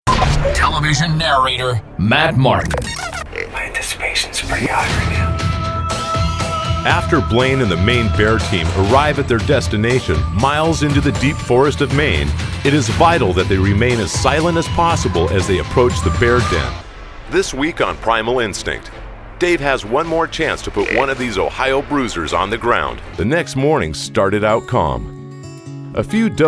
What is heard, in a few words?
TV Narration